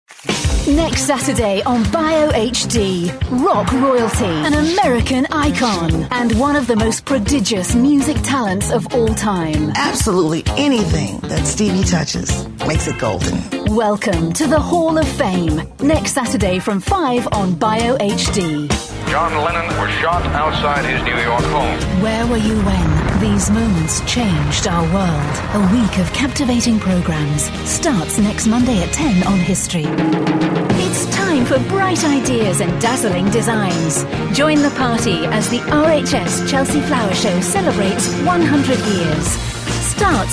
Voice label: promo